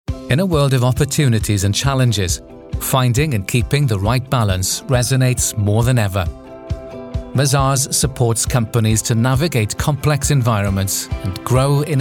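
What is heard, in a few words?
Welsh English, male, accent of wales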